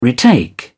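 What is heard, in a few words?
"retake", English, British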